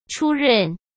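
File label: "出任" Mandarin Chinese; chinese-flashcards; hsk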